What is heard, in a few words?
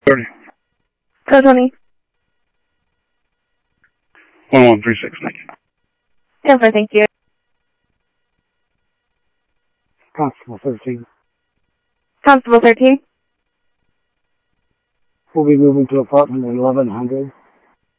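Sheriff West County, scanner audio trunk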